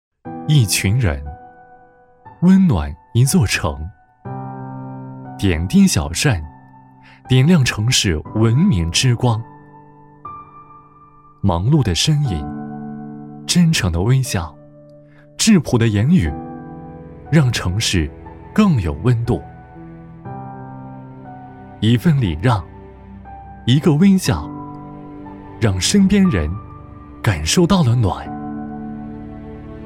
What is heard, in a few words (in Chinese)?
男 公益旁白; 志愿服务; 文明有我